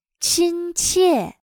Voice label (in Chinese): "亲切", chino